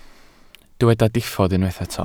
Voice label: "diffodd", Welsh